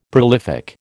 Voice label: "prolific", American

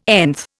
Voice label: "nth", Indian

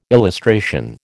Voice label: "illustration", American

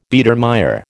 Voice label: "Biedermeier", American

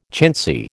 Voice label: "chintzy", American

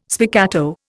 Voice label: "spiccato", Indian

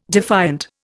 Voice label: "defiant", Indian

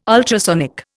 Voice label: "ultrasonic", Indian

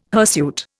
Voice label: "hirsute", Indian

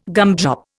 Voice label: "gumdrop", Indian

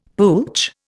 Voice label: "pooch", Indian; Dictionary

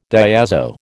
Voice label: "diazo", American

